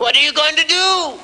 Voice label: what are you going to do cartoon; questions

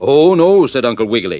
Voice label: "oh no", Voice; said uncle wiggly

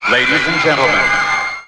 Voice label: ladies and gentlemen announcer

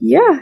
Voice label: "yeah" drag, voice; ooh ahh

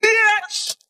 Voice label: "bitch", expletives

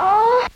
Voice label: "oh" girl; ooh ahh

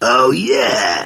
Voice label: "oh yeah", voice

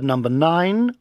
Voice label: number nine british bloke; Voice; numbers and letters

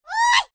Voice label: "wah" cartoon

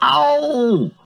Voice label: ow male pain; ooh ahh; ow ouch

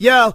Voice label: "yo", Voice

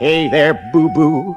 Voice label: aheb boodoo, gibbrish; Voice

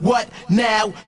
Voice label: "what now", voice sample; ooh ahh

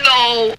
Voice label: "no", male; Voice; expletives

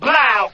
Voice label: "blaow", Voice

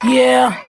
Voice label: "yeah" boy, voice

